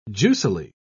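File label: "juicily", British English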